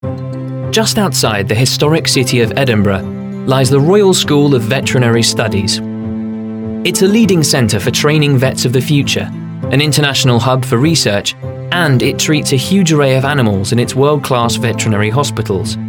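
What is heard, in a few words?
documentary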